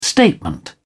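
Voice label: "statement", British English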